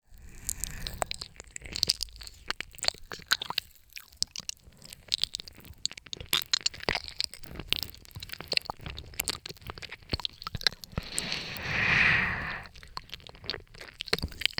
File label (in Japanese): まったりブレンド, ASMR